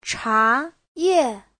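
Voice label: "cháyè", Chinese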